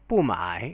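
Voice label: "bumai" Chinese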